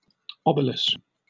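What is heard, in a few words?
"obelus", British English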